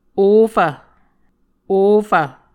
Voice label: "ofv", Muscogee

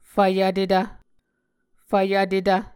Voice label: "fvyvtetv", muscogee

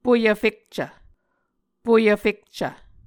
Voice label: "poyvfekcv", Muscogee

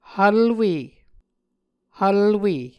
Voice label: "hvlwe", Muscogee

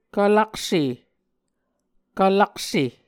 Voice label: "kvlakse", Muscogee

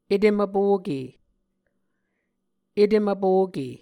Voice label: "etem-vpoke", muscogee

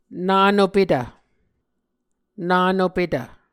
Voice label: "nanopetv", Muscogee

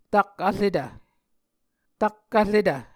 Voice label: "tak-vretv", Muscogee

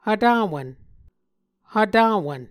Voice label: "hvtawvn", Muscogee

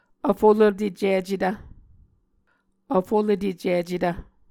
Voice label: "vfolotecicetv", Muscogee

